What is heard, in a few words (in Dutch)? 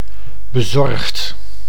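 "bezorgd", Dutch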